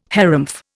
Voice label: "harrumph", Indian